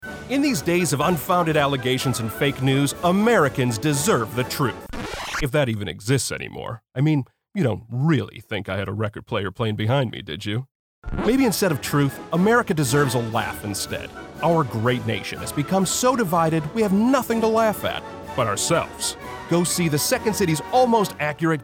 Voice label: RADIO SPOT